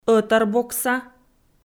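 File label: "ӧтарбокса", Komi, female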